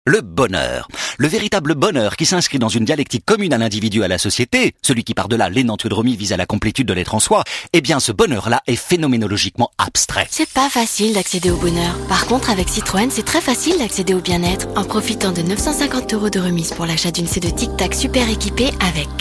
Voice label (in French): Pompeux; Citroen